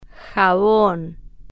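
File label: "jabon", Spanish, female, slow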